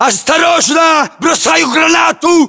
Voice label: inform attack grenade, battlechatter, ru; localized english